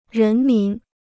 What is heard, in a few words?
"人民", Chinese